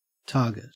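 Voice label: "target", Australian English; pronunciation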